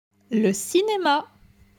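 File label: "Le cinema", French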